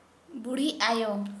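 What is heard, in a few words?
grandmother, Santali, female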